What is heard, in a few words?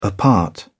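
"apart", British English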